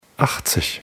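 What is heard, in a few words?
"achtzig", German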